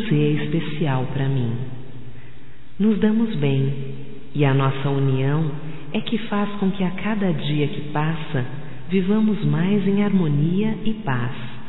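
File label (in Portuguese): voz, Homenagem a Irmã, female, Telemensagens; Telemensagens 2017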